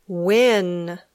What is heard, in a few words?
"when", English